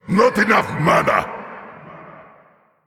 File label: Male Elemental Need Mana